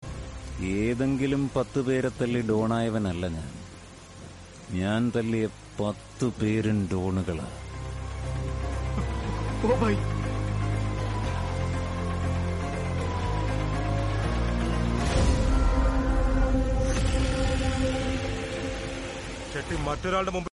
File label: mass malayalam dialogue; balaraman